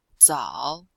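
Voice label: "zao3", Chinese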